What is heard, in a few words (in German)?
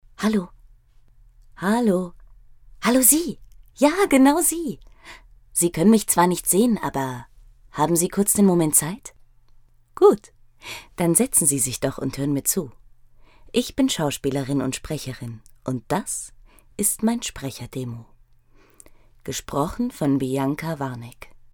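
Begrüßung loud; HALLO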